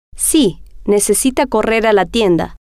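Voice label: si Necesita Correr Tienda, female, spanish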